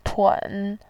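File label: "tun", Mandarin Chinese, third tone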